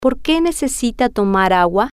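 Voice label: Porque Necesita Tomar Agua, Spanish, female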